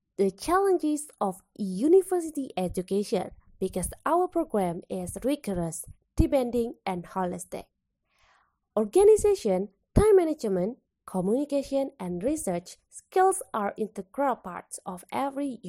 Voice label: formal, voice over